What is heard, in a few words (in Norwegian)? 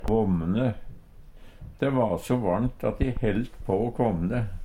kvamne, Numedalsmål; Adjektiv